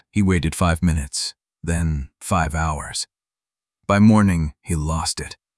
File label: narrator